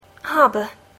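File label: "harbor", English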